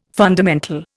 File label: "fundamental", Indian